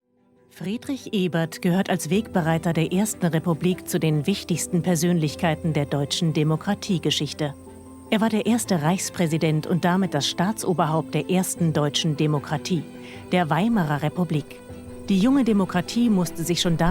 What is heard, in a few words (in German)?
doku; friedrich ebert stiftung